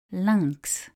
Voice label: "lynx" French; nasal

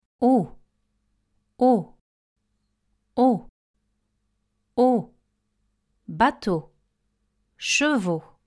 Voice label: o examples, French vowels

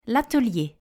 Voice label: "atelier", French; house in french

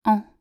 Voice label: pronoun en pronunciation, French

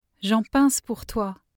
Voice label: "pince" French; love

